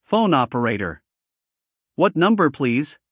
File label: male phone operator what number please